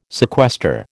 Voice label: "sequester", American